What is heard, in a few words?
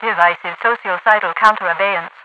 inciting popucide, radio voice; hl2; npc; overwatch